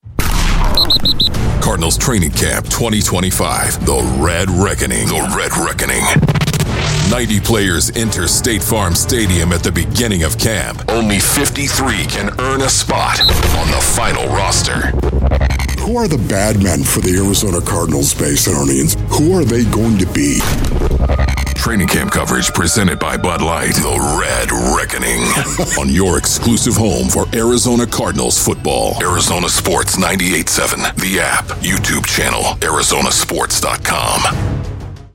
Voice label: PROMO; Washington, D.C. area